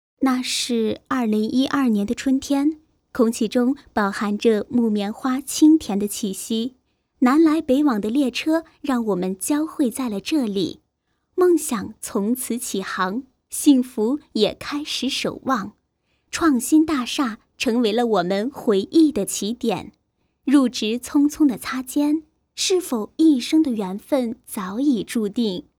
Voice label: 女 沙画 干音

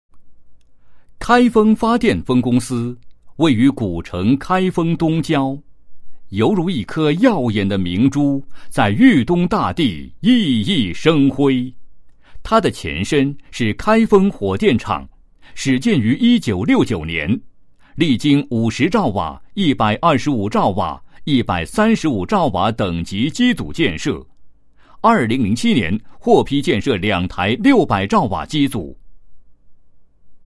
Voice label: 男 解说; 河南公司某发电分公司汇报片解说词

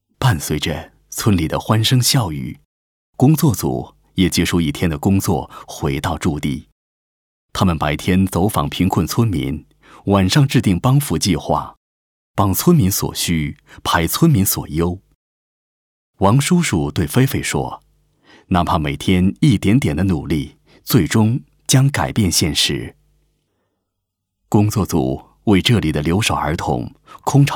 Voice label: 男-舒缓类旁边-扶贫

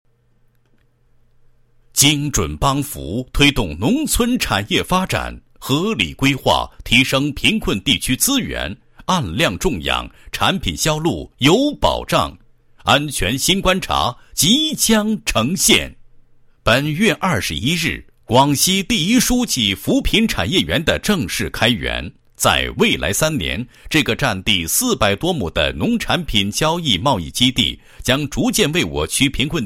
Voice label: 男 企业专题; 第一书记扶贫产业园介绍

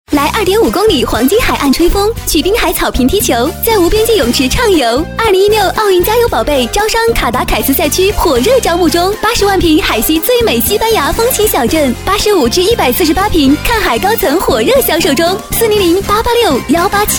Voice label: 女 广告 奥运加油; 2016奥运加油宝贝

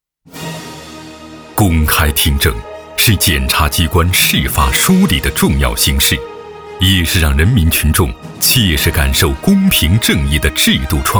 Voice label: 男 专题 党政 片 大气严肃; 云智听

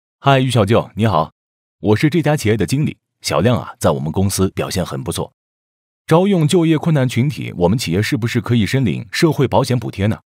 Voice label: 男 角色 总经理

